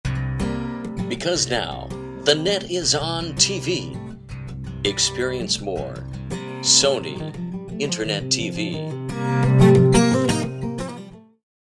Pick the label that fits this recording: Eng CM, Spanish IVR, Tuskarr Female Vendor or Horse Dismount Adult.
Eng CM